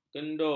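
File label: "kendur", Malay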